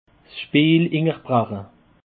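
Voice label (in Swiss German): "speelunterbreche", Alsatian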